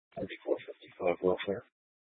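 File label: Police Dispatch, Scanner audio; Police 1 Dispatch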